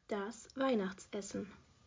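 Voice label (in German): "Weihnachtsessen", German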